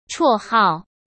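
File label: "绰号", Mandarin Chinese; chinese-flashcards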